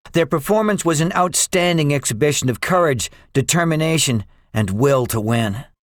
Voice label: debriefing, english; War Thunder

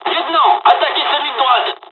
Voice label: dialog, dialogs french; War Thunder; midway

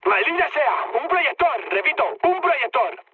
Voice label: searchlite, mood med, speech; War Thunder

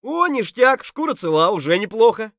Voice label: scav mutter, voice; Escape from Tarkov; scav3

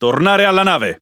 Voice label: It Speech Pilots Torpedo Land; World Of Warships

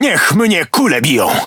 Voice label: Speech Quick Commands Caramba, PL; World Of Warships

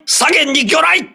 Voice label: voice message torpedo left, crew ships japanese

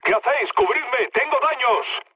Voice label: aircraft damaged, mood low, speech; War Thunder

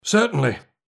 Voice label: Neutral Yes; Civ5; Washington

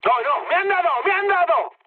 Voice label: aircraft damaged, mood high, speech; War Thunder

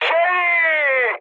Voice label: enemy detected, mood high, speech tur; War Thunder

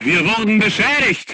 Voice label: voice message player tank hit, crew tanks german

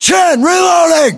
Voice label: US inform reloading, battlechatter, english; localized english

